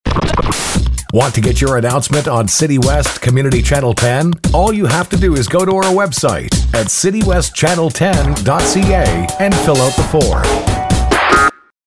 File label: Announcement